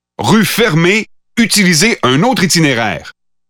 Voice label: Pathfinder French Standard Canadian